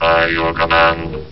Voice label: cylon robot, Robotic or Stuttery or Spooky